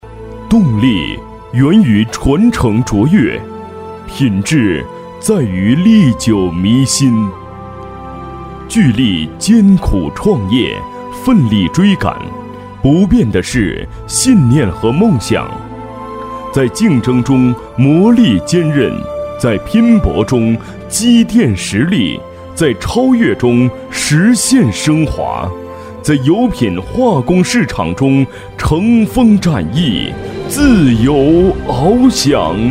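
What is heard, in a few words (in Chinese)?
企业宣传片解说词, 男; 《浙江巨力》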